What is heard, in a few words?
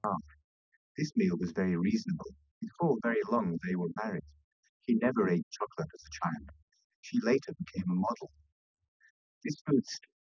male; SiSEC10; underdetermined